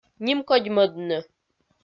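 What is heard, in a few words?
"нимкодьмӧдны", Komi, female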